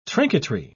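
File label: "trinketry", British English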